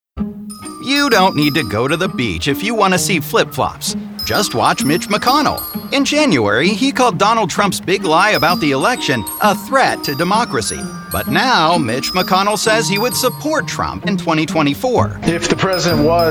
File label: snarky